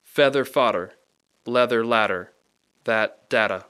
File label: feather fodder leather ladder that data, Rapid Sound Practice; Speech Pro